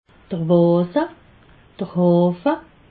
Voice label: "wase", alsace